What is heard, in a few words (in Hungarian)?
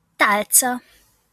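"talca" Hungarian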